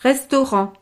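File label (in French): "restaurant", French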